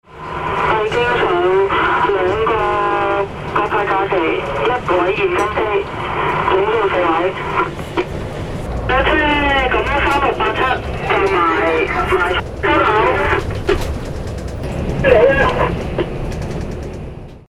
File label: airplane chinese